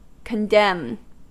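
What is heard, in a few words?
"condemn", American English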